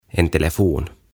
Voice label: "En Telefon", "Puhelin"

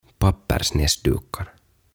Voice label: "Pappersnäsdukar" "Paperinenäliinat"

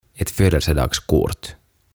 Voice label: "Ett Födelsedagskort" "Syntymäpäiväkortti"

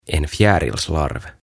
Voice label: "En fjärilslarv", "Perhosen toukka"

